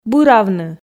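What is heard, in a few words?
"быравны", Komi, female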